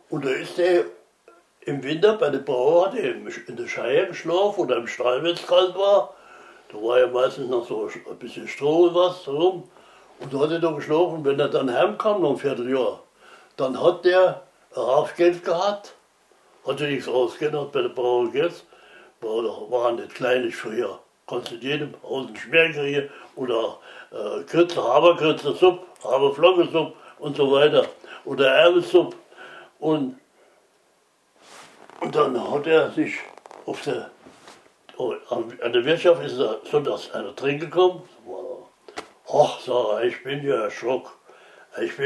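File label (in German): siebmacher und rattenfrass, O-Ton Hunsrück; Simmern/Hunsrück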